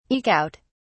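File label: "eke out", British English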